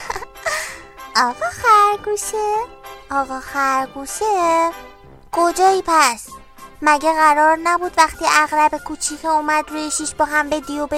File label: narrator